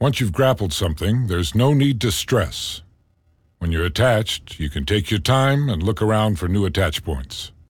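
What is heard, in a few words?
tutorial new; Bionic Commando 2009 PC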